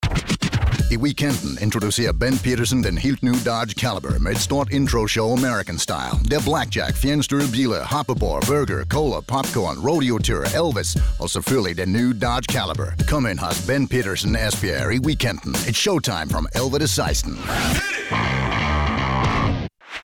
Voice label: radio spot mix